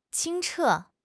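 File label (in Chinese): "清澈", Chinese; HSK6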